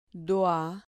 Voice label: "dua", Spanish syllable